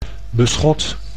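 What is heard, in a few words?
"beschot", Dutch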